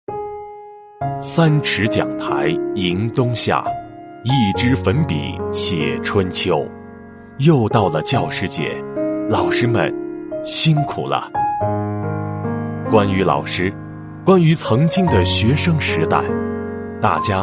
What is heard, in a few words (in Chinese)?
男 感谢词 教师节感谢词